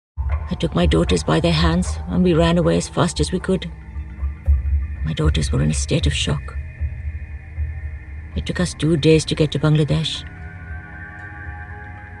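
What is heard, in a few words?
Hindi, Accented English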